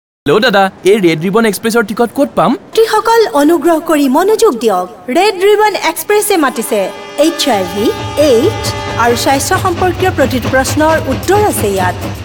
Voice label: Radio Assamese